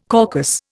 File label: "caucus", Indian